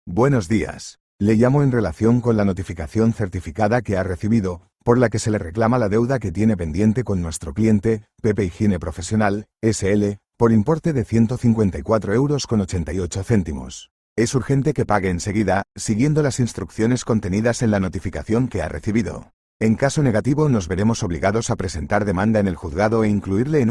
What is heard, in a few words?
audio call